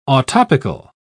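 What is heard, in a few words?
"autoptical", English, British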